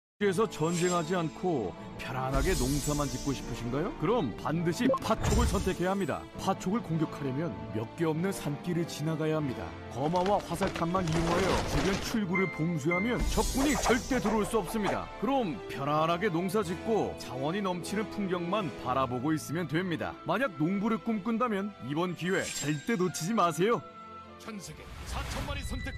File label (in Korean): 게임홍보 캐릭터내레이션